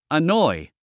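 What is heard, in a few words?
"annoy", English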